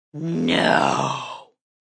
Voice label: "No" Female, Exclamation; VoiceBox